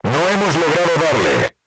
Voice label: voice message armor not pierce, crew tanks spanish